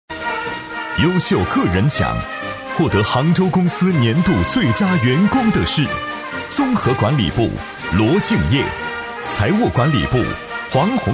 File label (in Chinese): 年度颁奖 男; 招商蛇口杭州公司